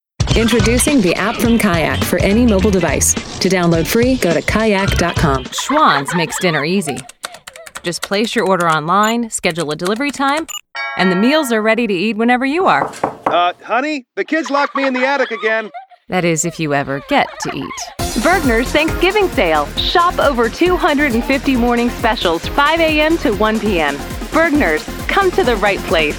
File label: US commercials; engelsk-us; kvinne